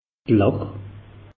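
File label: "lach", Alsatian; meteo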